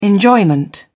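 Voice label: "enjoyment", British English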